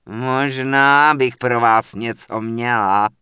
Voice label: Witch Doctor Pissed; wowpreklad; czwow

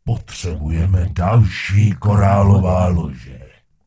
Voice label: Gnome Male err guild permissions; wowpreklad; czwow; GnomeMaleErrorMessages

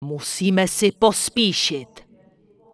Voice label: Night Elf Female Standard NPC Farewell; wowpreklad; czwow

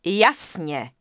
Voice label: Night Elf Female Standard NPC Greeting; wowpreklad; czwow

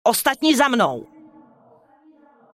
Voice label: Night Elf Female Follow Me; wowpreklad; czwow; NightElfVocalFemale

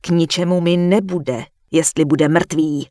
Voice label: Human Female Warrior NPC Farewell; wowpreklad; czwow

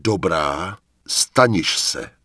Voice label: Night Elf Male Official NPC Farewell; wowpreklad; czwow

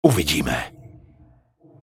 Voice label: Night Elf Male Goodbye; wowpreklad; czwow; NightElfVocalMale

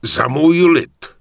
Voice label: Human Male Warrior NPC Farewell; wowpreklad; czwow

